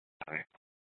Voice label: Scottsdale PD Sth Dispatch, scanner

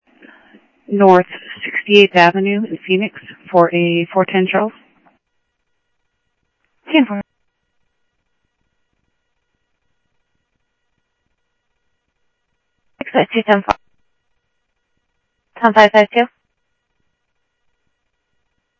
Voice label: Central Sheriff Central, scanner audio trunk

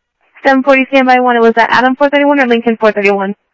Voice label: scanner audio trunk

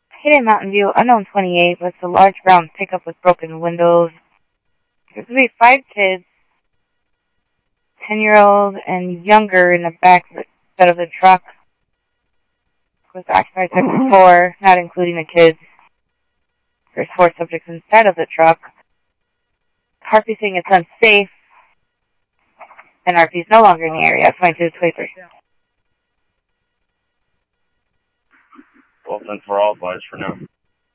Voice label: Scottsdale PD Scottsdale Police, scanner audio; ThompsonPeakHigh; SCTSDLE PD - PD2

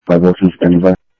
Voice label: Info Sheriff Common, scanner audio; ThompsonPeakScot

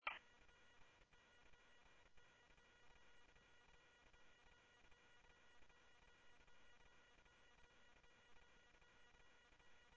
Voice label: Mesa Simulcast, MESA PD, scanner audio; 3 Red Mt; MesaPoliceDep

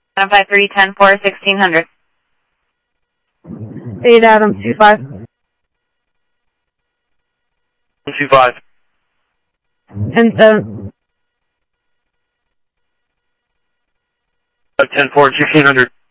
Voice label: scanner audio; Thompson Peak; MVD Enforce; ArizonaDepofTran

